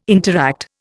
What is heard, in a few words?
"interact", Indian